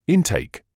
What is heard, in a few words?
"intake", English